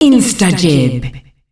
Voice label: "Instagib", female announcer; Unreal Tournament; ut2004